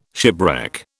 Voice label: "shipwreck", British